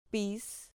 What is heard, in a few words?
"piz", Spanish